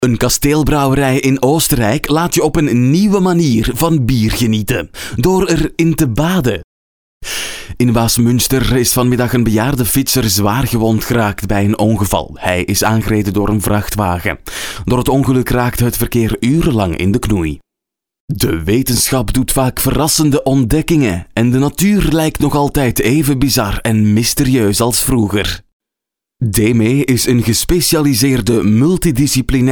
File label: corporate